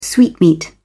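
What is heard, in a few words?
"sweetmeat", British English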